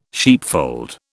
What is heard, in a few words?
"sheepfold", British